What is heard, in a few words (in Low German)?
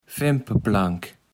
"Fempeplank", Platt